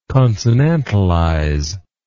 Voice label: "consonantalization", English